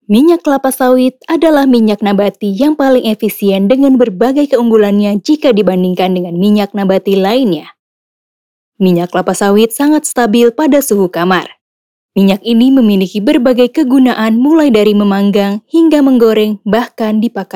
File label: SAMPEL FORMAL INDONESIA